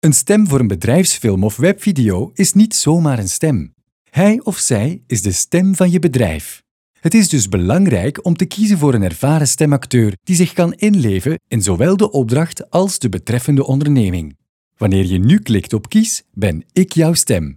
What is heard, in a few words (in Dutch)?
BEDRIJFSFILM